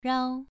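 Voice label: "rao", tone 2, pronunciation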